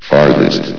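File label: "farthest", male synthesized voice; vox; source engine; hl1; video games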